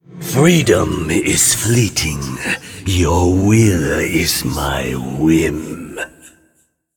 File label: Ethereal Emote Play